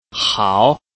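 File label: "hao", Chinese, tone 2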